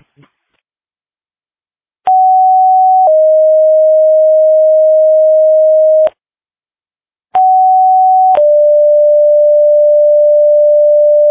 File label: fire, dispatch; Readlyn